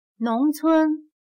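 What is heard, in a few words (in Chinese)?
"农村" Mandarin Chinese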